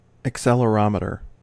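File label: "accelerometer", US English; pronunciation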